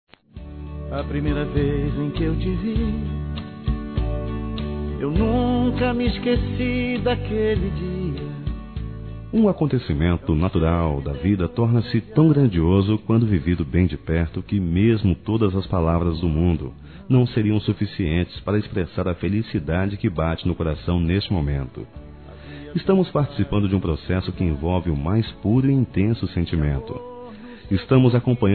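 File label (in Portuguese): GESTANTE ROMANTICO, Telemensagens, Românticas masculino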